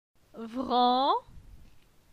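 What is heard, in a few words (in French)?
"Vran", French; phonetique; la syllabe an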